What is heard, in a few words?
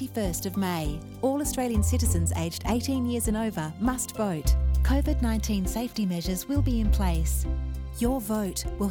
voter services early voting radio ad